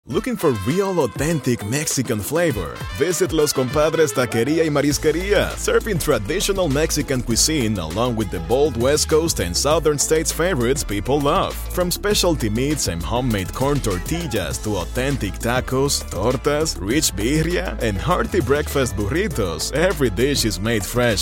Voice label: conversational take, hispanic voice overs; Los compadres taqueria y marisqueria